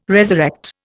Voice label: "resurrect", Indian